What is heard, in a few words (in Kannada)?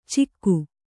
"ಚಿಕ್ಕು", Kannada